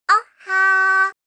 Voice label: "ohhaa", voice; aisatsu